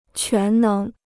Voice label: "全能", Chinese